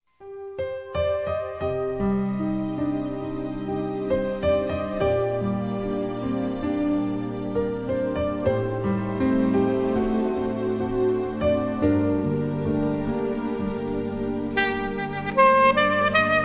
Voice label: Aniversário SobrinhA Feminino; Aniv Familiar

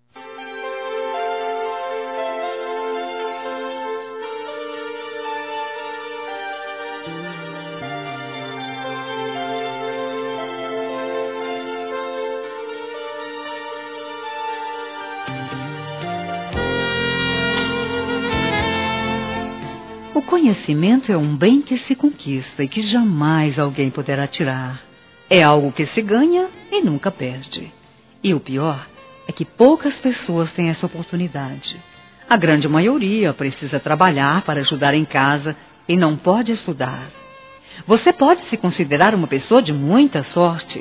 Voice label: Formatura 1º Grau Feminino; Telemensagens; Formaturas; Telemensagens 2017

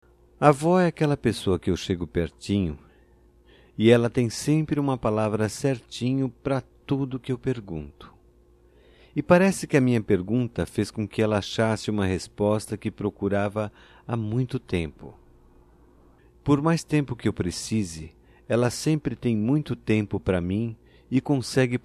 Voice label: M; DIA DA AVÓ; Telemensagens 2017; Datas Mistas

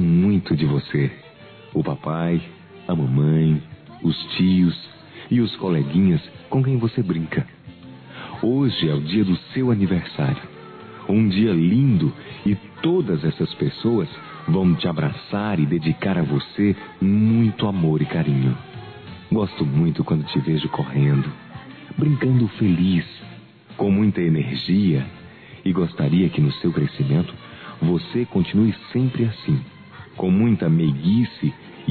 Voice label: Para Criança Geral Masculino; Telemensagens 2017; Aniversários; Aniversário Infantil

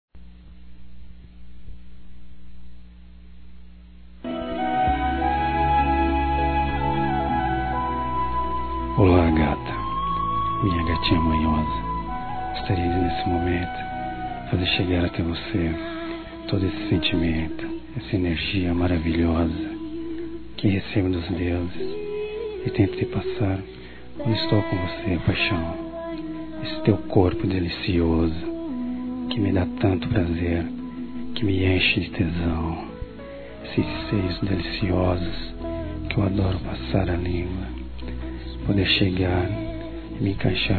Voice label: ROMANTICA ERÓTICA M, Sensuais masc; Telemensagens

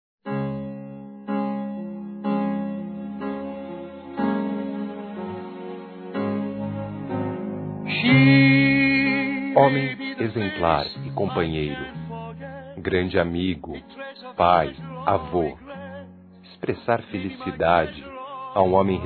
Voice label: Aniversário Avô Masculino

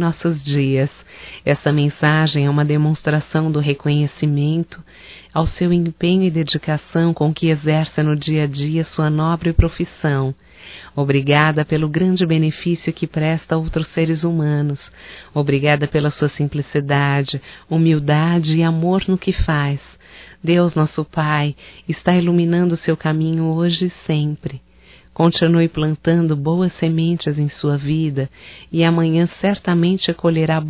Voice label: HOMEN DESENHISTA, Só Voz, fem; Telemensagens; HOMENAGENS

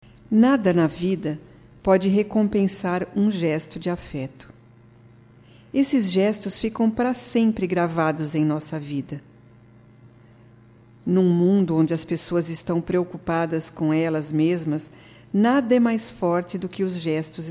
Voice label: AGRADECIMENTO NEUTRO - F, Só Voz; fem; Telemensagens 2017

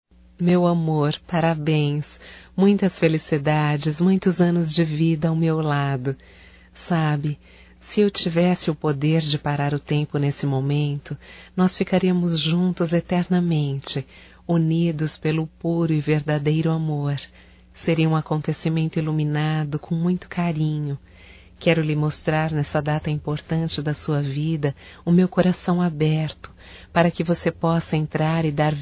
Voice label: Aniv romântico, Telemensagens Aniversarios; Telemensagens 2017

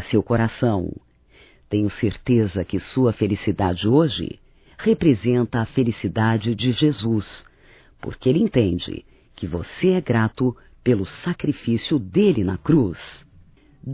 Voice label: ANIV AMIGO, Telemensagens, Evangelico, fem; Telemensagens 2017

